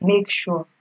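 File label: "makesure", Nigerian Pidgin, female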